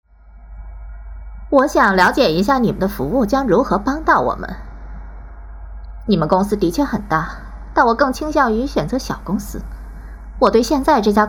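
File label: woman voice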